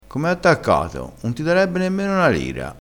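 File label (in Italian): "attaccato", dialettale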